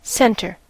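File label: "center", English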